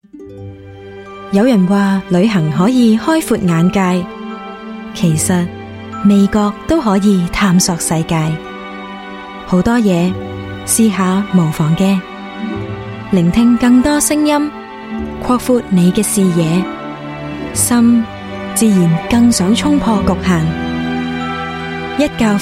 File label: Cantonese